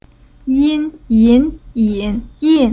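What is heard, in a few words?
"Yin", Chinese syllable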